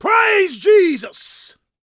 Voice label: preachin, gospel preacher